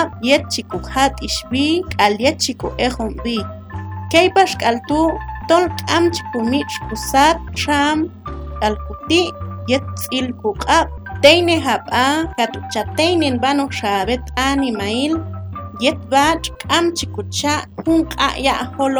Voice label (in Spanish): Que es coronavirus Awacateko; prevencion